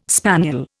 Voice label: "spaniel", Indian